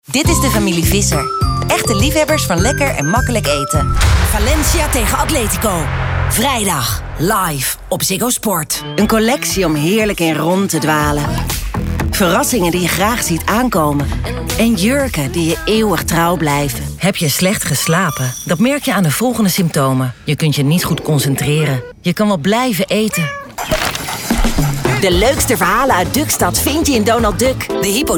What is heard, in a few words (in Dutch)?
commercieel